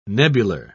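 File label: "nebular", British English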